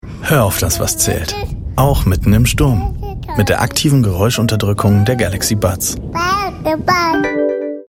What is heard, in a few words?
Werbung, intimate, friendly, close, Commercial, German; Samsung Galaxy Buds